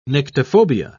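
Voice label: "nyctophobia", English, British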